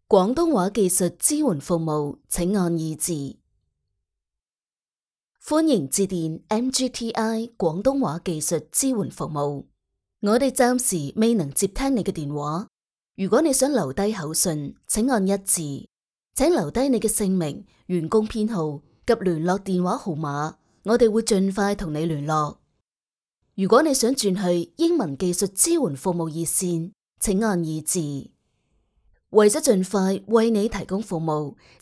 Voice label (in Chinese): 粤语温柔专业电话语音